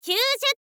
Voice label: "kyuuzyu", info girl voice

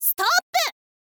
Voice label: "stop", girl; voice; info girl

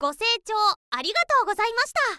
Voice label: "go seichou arigatou", info girl voice

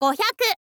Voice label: "gohyaku", info girl voice

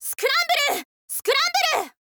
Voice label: scramble, girl

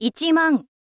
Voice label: info lady "ichiman"

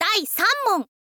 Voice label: "daisanmon", girl; voice

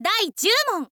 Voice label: "daizyuumon", girl; voice

